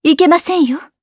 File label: Vox Deny jp; voices; heroes; kingsraid